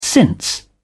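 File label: "since", British English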